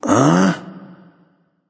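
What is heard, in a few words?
"seriously", male; baronsamedi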